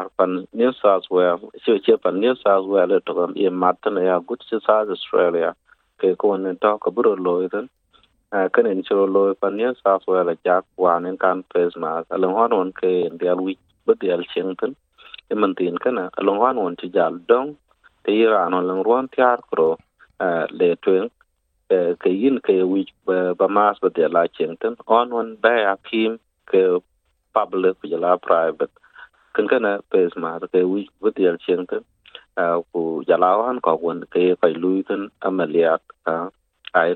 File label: dinka; NSW scrapped mask mandates; SBS Dinka